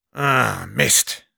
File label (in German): negative vocalization; Sniper